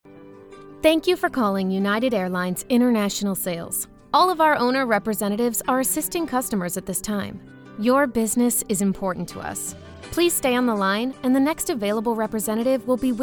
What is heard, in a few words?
telephony ivr